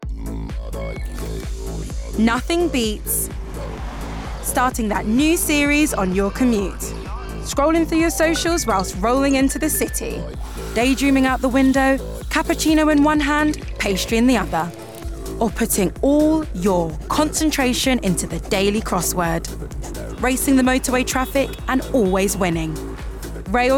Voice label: commuter VO radio mix; Accomplice; National Rail; Spotify

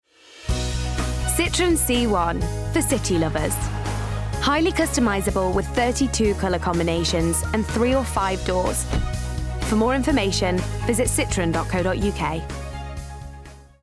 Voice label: COMMERCIALS; Citroen

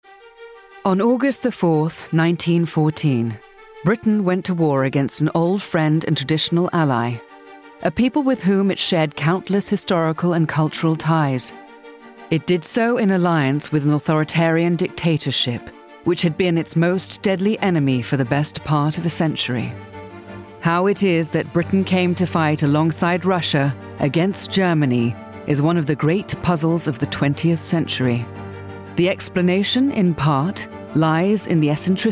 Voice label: Documentary

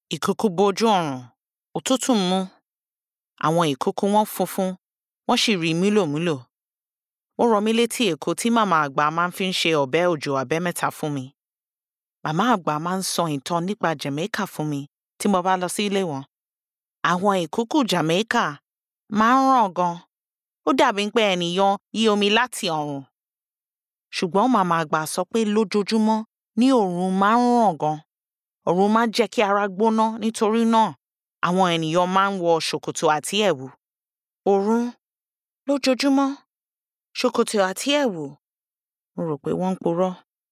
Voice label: Yoruba

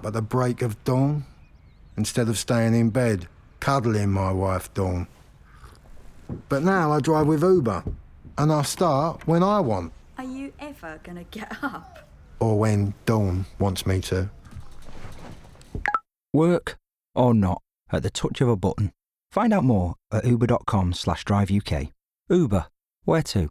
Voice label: Radio Labourer

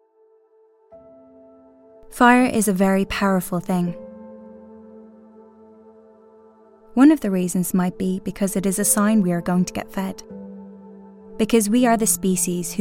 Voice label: Narration

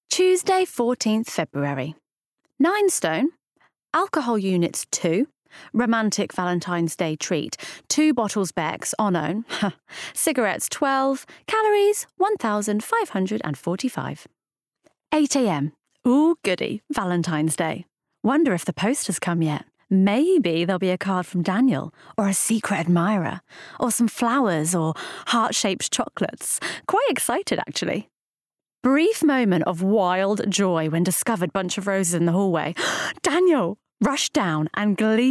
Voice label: Bookread; Bridget Jones

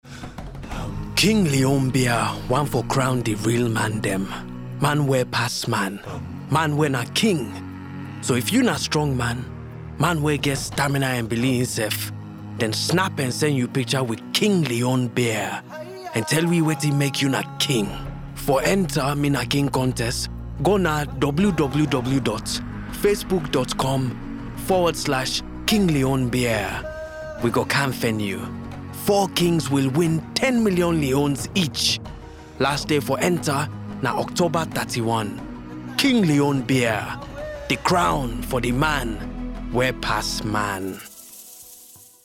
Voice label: beer commercial, Krio; King Leone

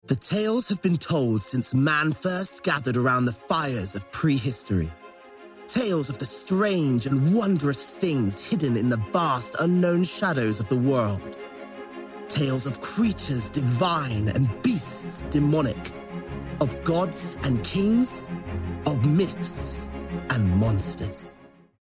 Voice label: Narration

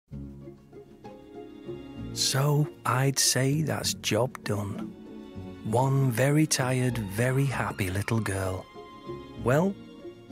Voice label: Summer Advert; Clubcard Days Out; Tesco

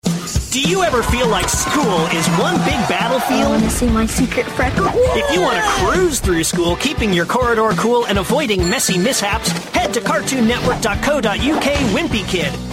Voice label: promo

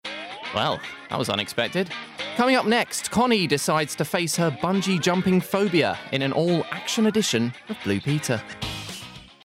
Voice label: Continuity; Blue Peter

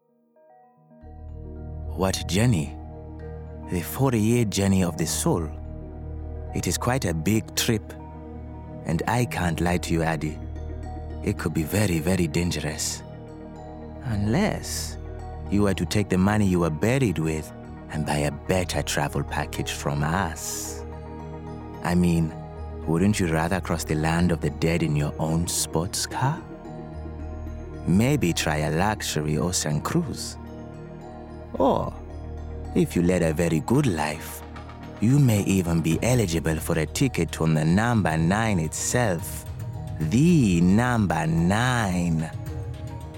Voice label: north african; Grim Fandango

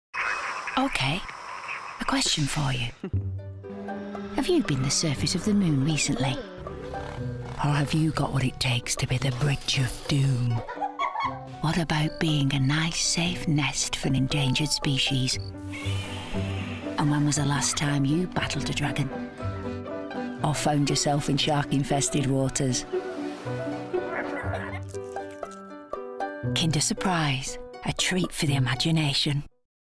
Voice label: TV ad; Kinder Surprise; Parents

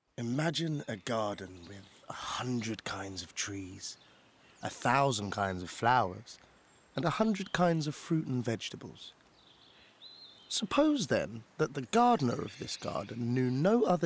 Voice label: VO Narrative

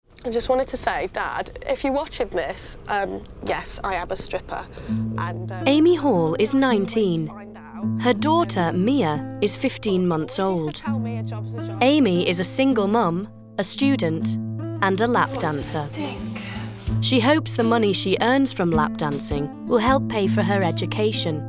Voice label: young Documentary

